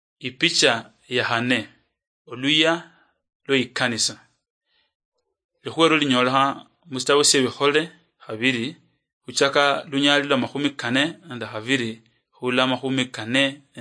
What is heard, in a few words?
acts of the holy spirit luyia marachi, drama; oluluyia; bible